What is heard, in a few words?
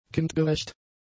"kindgerecht", German; adjectives